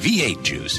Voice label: "v8 juice", Voice